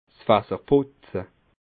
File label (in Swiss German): "fasserputze", alsace